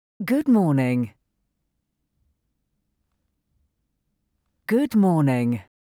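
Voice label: Good morning, English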